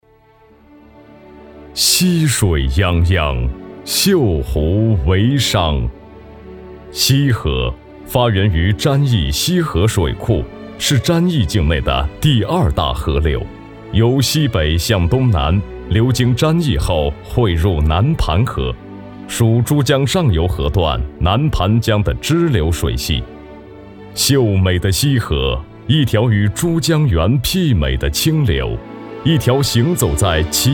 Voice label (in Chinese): 男, 专题; 西河公园